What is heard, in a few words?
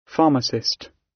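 "pharmacist", British; Business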